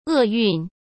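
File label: "厄运", Mandarin Chinese; chinese flashcards; hsk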